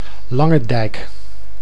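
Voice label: "Langedijk", Dutch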